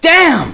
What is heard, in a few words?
fear